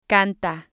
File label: "canta", spanish